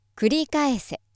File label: female